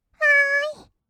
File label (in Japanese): "わーい", kawaii voice; 1200 kawaii voice!!; 色々なワンショット